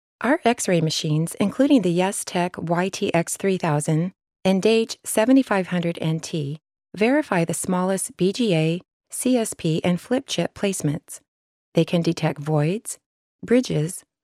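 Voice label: American narration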